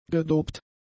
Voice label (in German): "gedopt", German; adjectives